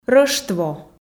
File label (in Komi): "рӧштво", f